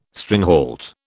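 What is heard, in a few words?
"stringhalt", British English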